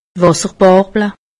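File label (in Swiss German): "wasserbarble", Alsatian; medecine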